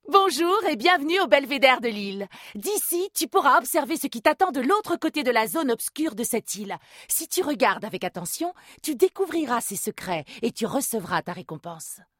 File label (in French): Narration jeu enfant